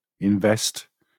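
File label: "invest", British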